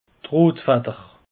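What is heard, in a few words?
"rotfadder", Alsatian; peche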